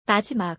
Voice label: "majimak", Korean